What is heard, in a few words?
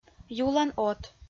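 "юлӧн от", Komi, female; kpv audio dict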